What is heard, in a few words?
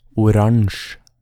"Oransje", Norwegian